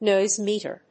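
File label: "noise meter", English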